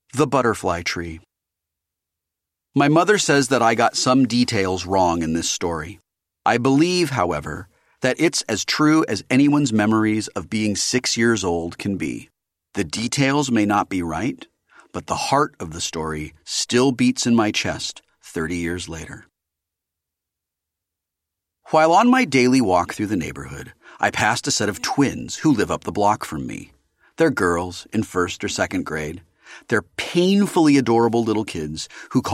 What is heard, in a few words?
Audiobook